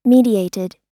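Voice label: "mediated", American English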